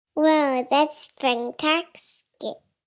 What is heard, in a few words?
Fanactstic, Reaction, 1 child, 3 yrs.; Talking Sound Effects; VoiceBox; Twistedtracks